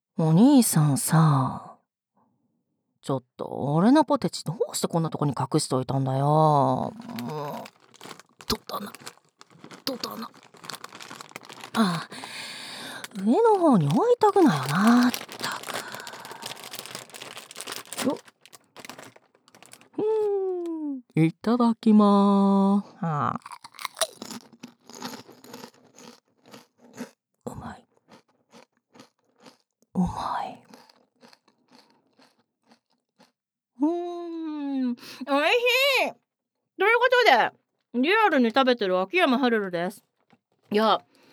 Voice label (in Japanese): フリートーク; 和胜似男生性格而且懒散的褐色精灵♀不断卿卿我我的交尾日常。【低音声】